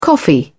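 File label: "coffee", English, British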